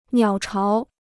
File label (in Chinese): "鸟巢", Chinese